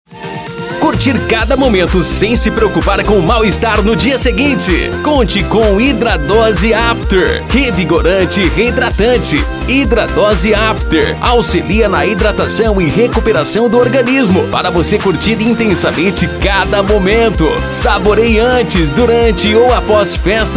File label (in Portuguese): Spot; Hidradose